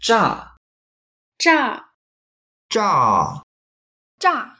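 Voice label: "zha4", Mandarin Chinese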